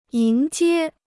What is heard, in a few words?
"迎接", Chinese